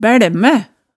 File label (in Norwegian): "bælmæ", hemsedalsmaalet tale